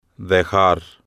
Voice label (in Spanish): "dejar", Spanish